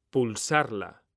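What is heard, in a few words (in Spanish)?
"PULSARLA", Spanish; mekanta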